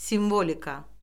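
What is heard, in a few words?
"simvolika", Azerbaijani; Orfoqrafiya Lüğəti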